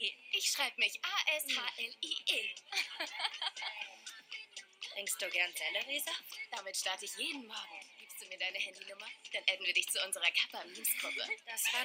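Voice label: Synchron, Lip-Sync, German; The Sex Lives of College Girls; Rolle Ash'lie (Kathleen Kenny)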